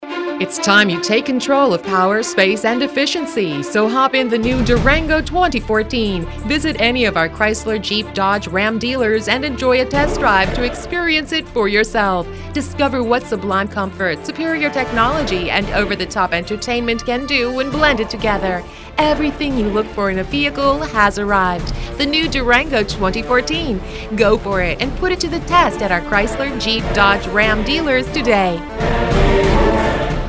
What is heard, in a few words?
radio in english; chrysler